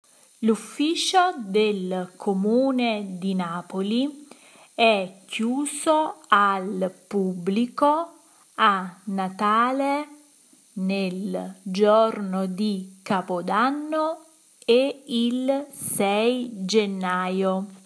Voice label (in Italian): Segreteria Telefonica